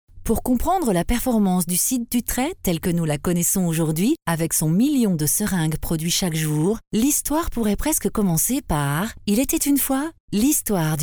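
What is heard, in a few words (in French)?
French, female, narration; voice demos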